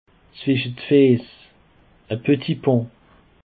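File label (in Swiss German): "zwische d fiess", Alsatian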